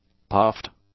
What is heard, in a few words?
"puffed", english; adjectives